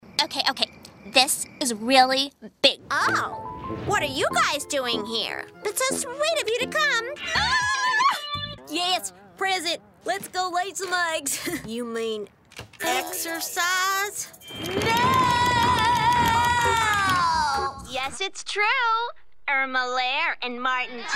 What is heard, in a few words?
animation